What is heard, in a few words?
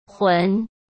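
"魂", Mandarin Chinese; chinese flashcards; hsk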